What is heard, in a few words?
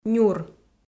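"нюр", Komi, female; kpv audio dict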